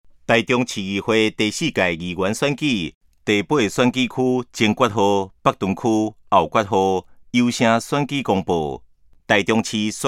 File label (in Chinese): 前言, 台語; 臺中市; 有聲公報; 市議員; 第08選區